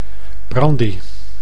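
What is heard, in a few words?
"brandy", Dutch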